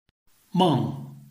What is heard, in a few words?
"meng4", Chinese